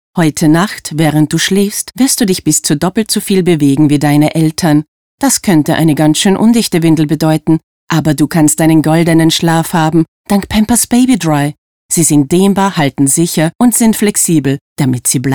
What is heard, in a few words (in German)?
Werbung